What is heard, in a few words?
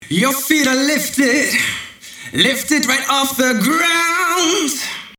slap echo with echo, vocal